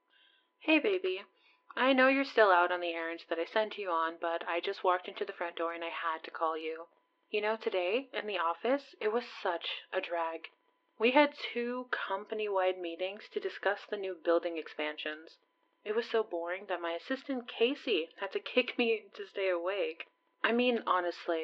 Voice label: F4M Voice Mail; One New Voice Message!; Mommy Kink; Mommy Misses you~; Executive GF